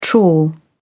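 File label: "trawl", British English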